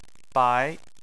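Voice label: "bai" Chinese, second tone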